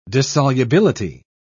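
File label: "dissolubility", British English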